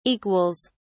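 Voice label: "equals", British English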